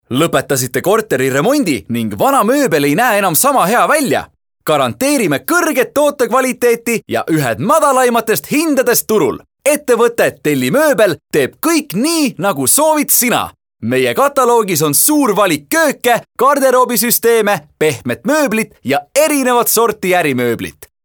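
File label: Estonian male Active, vo examples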